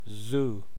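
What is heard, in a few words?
"zoo", American English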